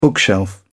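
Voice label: "bookshelf", British English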